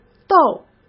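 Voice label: "逗", Mandarin Chinese; chinese flashcards; hsk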